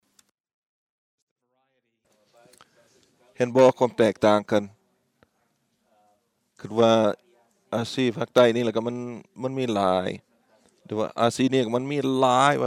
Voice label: Laotion; iclrs symposium 2009